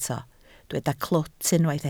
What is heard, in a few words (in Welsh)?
"clwt", Welsh